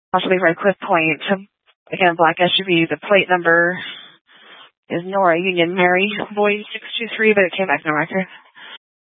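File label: Sheriff Dispatch, scanner audio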